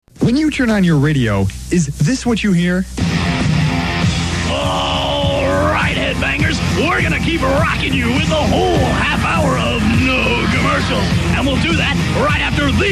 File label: Promo Voice; WJPZ; Dig At 95X And Y94 For Playing Commercials